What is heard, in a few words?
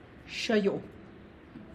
How are you, Tlacochahuaya Zapotec; (Spanish) Cómo estás?; xa yu'?